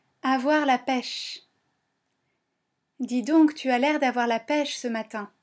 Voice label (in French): "peche", French